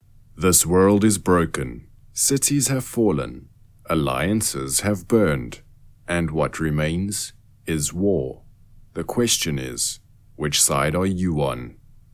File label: Gaming Trailer Style